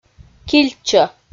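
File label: "кильчӧ", Komi, female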